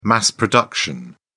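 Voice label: "mass production", British English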